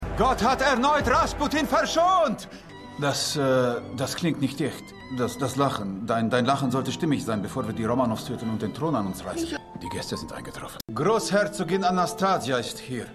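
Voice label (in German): Russischer Mönch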